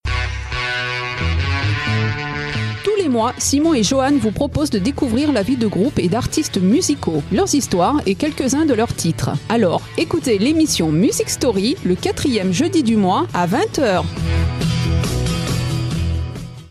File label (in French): Annonce, voix radio émission; Music Story